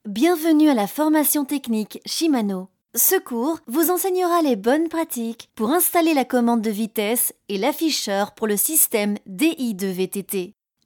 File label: E-LEARNING French